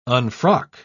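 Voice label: "unfrock", English, uk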